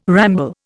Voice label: "ramble", Indian